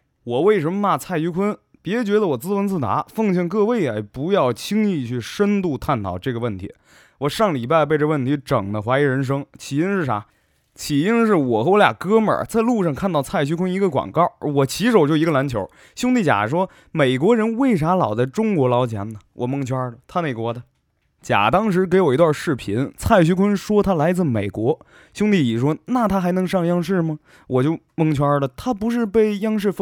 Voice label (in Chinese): 解说京津口音交流调侃